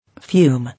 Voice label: "Fume", English